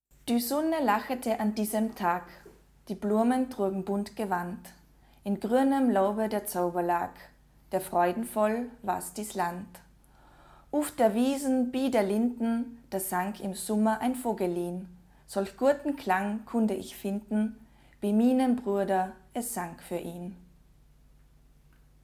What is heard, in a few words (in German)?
mittelhochdeutsches gedicht weibliche stimme; sprachliche vielfalt